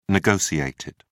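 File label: "negotiated", British English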